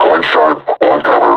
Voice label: announce attack cover, combine suppressor